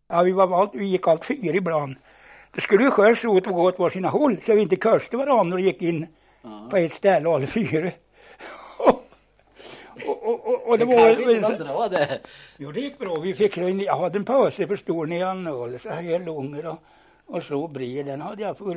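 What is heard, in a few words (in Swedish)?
Horn Östergötland, dialekter; Folkminnen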